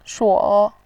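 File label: "shuo", Mandarin Chinese, third tone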